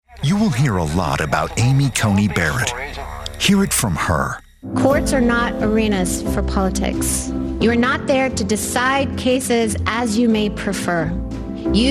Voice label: COMMERCIAL; amy coney barret